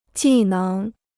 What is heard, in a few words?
"技能", Chinese